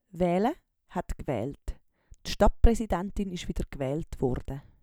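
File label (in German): "wähle", Swiss German; Wortschatz; Hauptwortschatz; Wortschatz Kapitel 7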